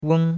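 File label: "weng1", Mandarin Chinese; Cours-de-Chinois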